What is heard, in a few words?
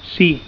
"yes", Catalan